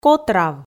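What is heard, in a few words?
"котрав", Komi, female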